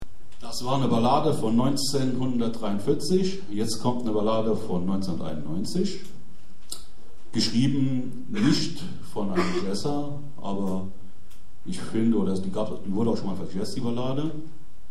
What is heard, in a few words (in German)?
Ansage; Jazz-Club Trier e.V. (JCT); 15. Jazzgipfel (Tufa, Trier)